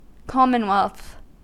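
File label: "commonwealth", US English; pronunciations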